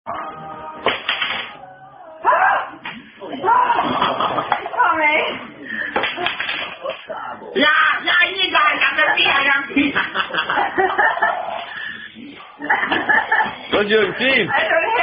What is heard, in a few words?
Trinkspiel Zu Viert, German Dice Drinking Game